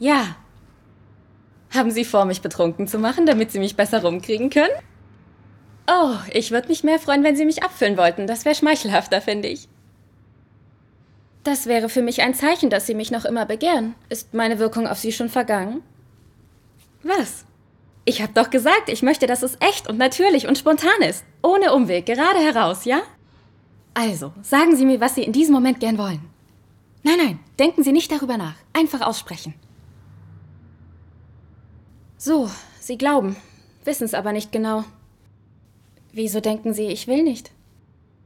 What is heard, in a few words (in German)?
Synchron Komoedie; voice